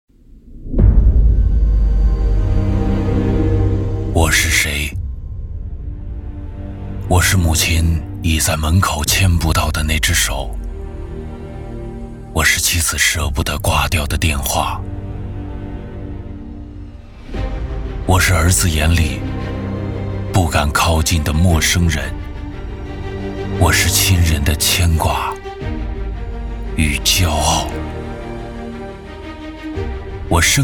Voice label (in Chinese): 国语男 走心讲述; 中国军人